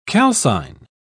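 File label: "calcine", English, British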